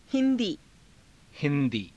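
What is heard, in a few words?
"hindii", Hindi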